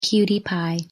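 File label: "cutie pie", English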